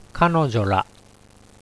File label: "kanojo-ra", Japanese; pronoun